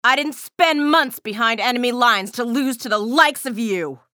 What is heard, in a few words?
chatter neutral; athena